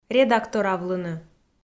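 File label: "редакторавлыны", Komi, female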